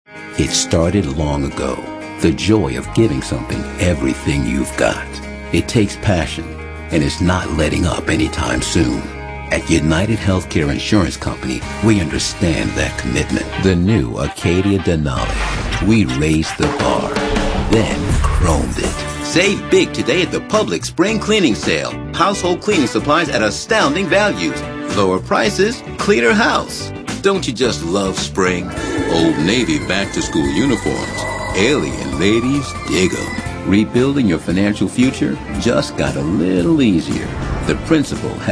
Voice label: male, mid 30s mid 40s, cool